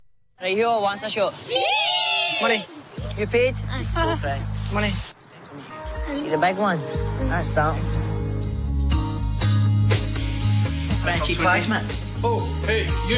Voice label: trailer; sweet sixteen